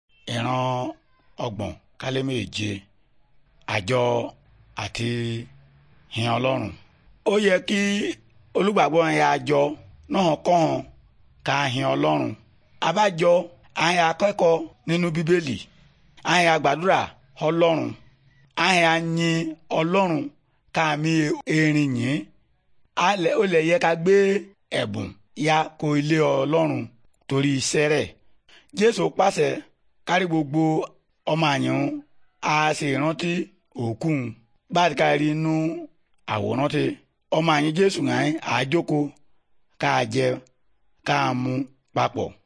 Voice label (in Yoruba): good news yoruba, bible